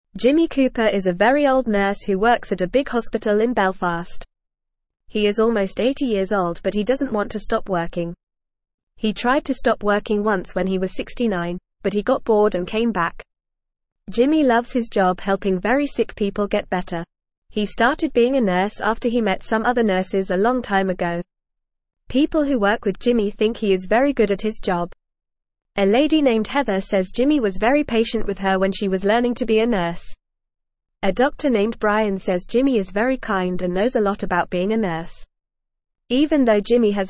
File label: English Newsroom Beginner FAST Reading; Jimmy Cooper The Very Old Nurse Who Keeps Working